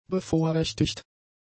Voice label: "bevorrechtigt", German; adjectives